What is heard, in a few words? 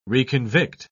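"reconvict", British English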